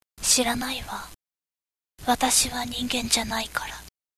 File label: girl